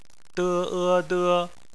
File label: "de", Chinese; bpmf